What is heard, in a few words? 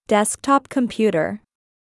"Desktop computer", English